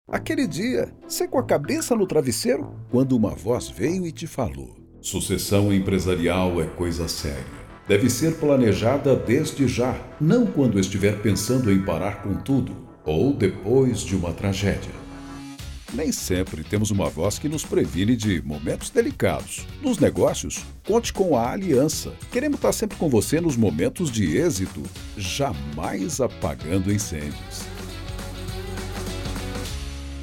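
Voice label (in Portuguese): Português - Brasileiro, Masculino, Clássico Novidade no Ar, Voz Madura; Voz do Além rs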